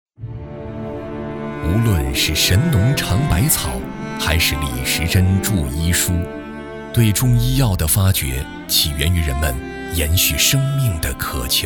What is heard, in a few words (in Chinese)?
专题 产品 中草药 讲述, 男国